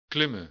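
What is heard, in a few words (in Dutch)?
"klimmen", Dutch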